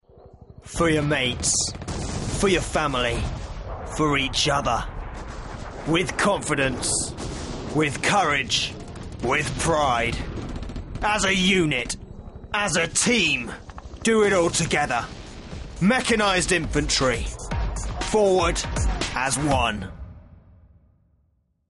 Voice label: Army, voiceover